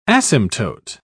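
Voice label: "asymptote", British English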